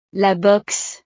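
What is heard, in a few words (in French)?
"la boxe", French; deportes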